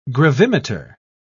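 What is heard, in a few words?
"gravimeter", British English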